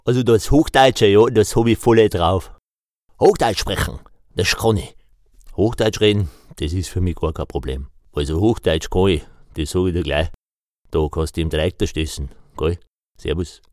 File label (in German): Dialekte